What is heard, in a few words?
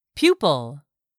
"PUPIL", English; 3000 words